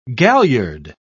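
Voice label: "galliard", British English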